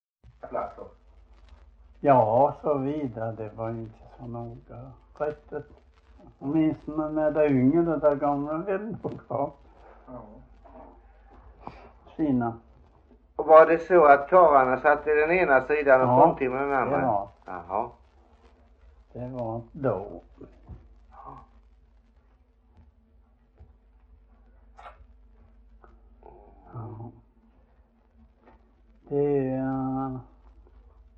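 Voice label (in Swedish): Frillesås Halland, Svenska sagor dialekter; Folkminnen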